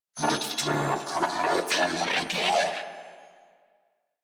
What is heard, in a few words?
Patrol, Female; New War; Narmer Grineer